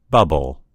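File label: "bubble", American English; gcompris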